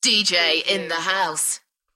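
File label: UK female, DJ vocals; Dj in the house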